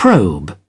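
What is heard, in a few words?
"probe", British English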